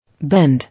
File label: "Bend", English